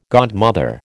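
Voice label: "godmother", American English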